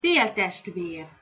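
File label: "feltestver", Hungarian pronunciation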